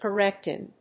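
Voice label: "correct in", English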